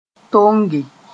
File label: "tonge", Mooré